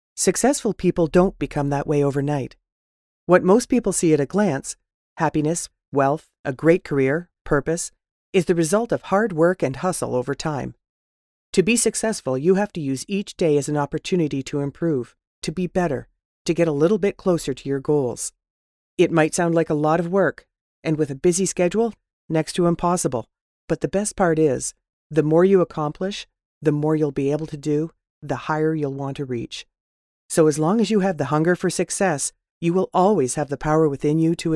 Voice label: Voiceover Internet Animation